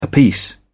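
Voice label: "apiece", British English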